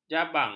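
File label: "jabang", Malay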